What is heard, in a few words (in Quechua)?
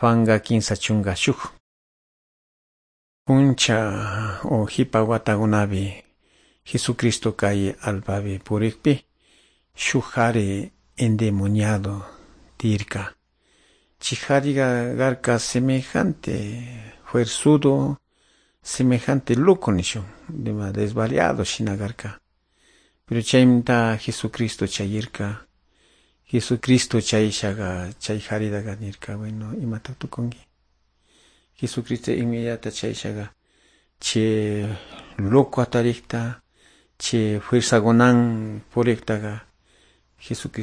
good news quichua de calderon